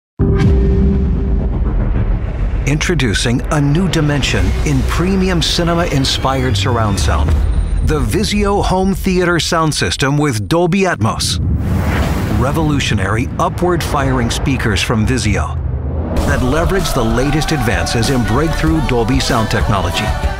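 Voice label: US English narration, mann; engelsk-us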